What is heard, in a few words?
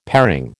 "paring", American